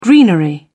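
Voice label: "greenery", British English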